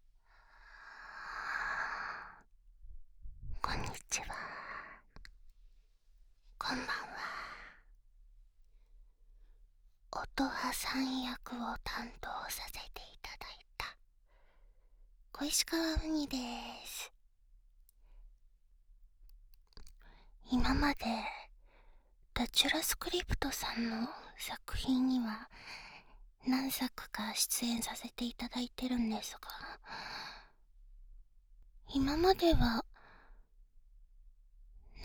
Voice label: フリートーク, ASMR

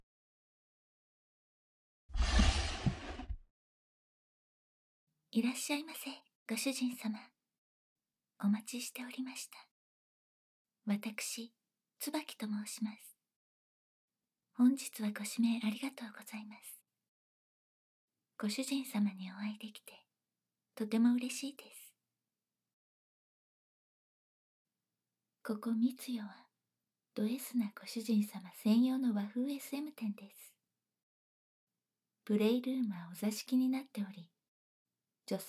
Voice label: いらっしゃいませ, ASMR

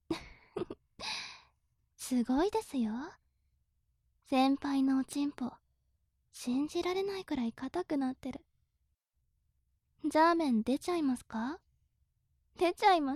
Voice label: 最終日10カウント中, ASMR

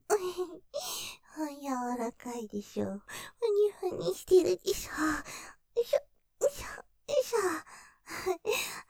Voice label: パイズリ 健気に ふにふに よいしょ よいしょ

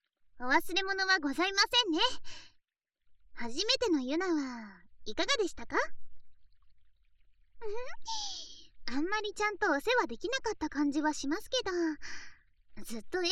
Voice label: お帰りのご挨拶, ASMR

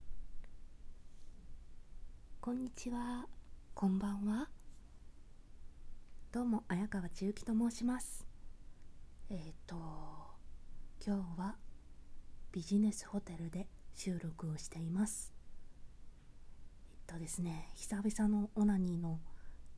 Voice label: フリートーク「自己紹介」; オナニー実演音声

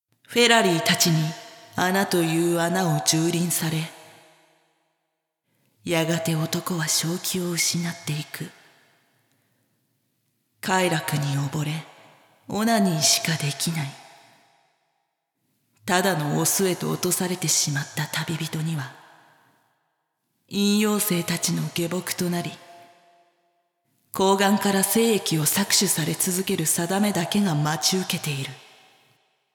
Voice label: SEなし ナレーション; 淫妖精の森

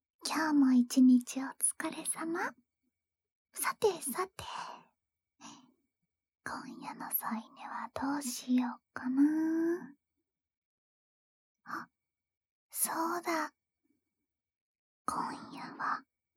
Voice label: 添い寝, ASMR; 木曜日の添い寝っ♪

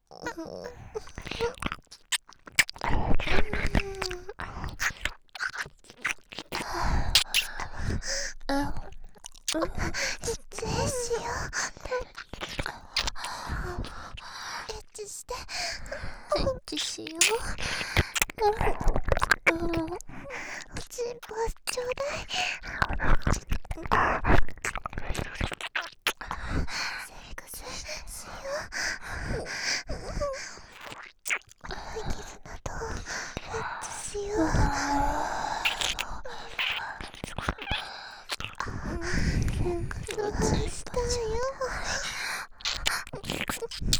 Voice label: エッチしよ, バイノーラル; DL製作班; ハーレムオナサポ 4人のメイドがご主人様のオナニーをお手伝いするバイノーラル; 絆＋

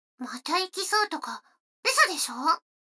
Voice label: 女の子台詞, ASMR

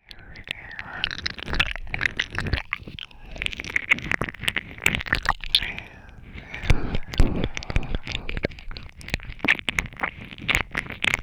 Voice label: ぞわぞわブレンド, ASMR

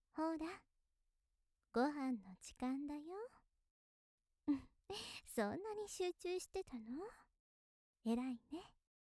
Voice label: 優しいお姉さん ごはんだよ

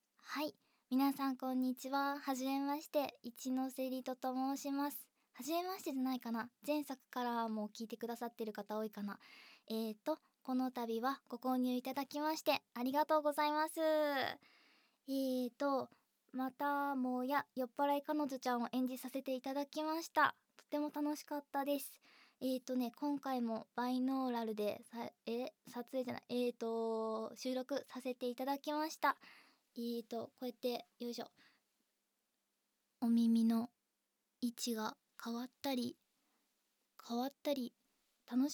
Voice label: フリートーク; へべれけ彼女2 -欲求不満で居酒屋デート; 効果音あり

